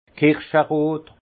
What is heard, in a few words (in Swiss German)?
"kirscherot", alsace; vin; cerise